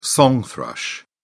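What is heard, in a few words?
"song thrush", British English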